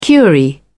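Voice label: "curie", British English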